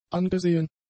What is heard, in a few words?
"angesehen", German; adjectives